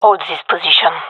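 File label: request hold, gendarmerie female; vo; agents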